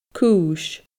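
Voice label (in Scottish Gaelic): "cuis fada", Scottish Gaelic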